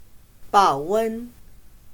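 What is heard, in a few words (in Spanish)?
"保温", chino mandarin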